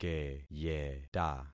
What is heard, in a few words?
"keyeta", concat syllables; Affixing experiment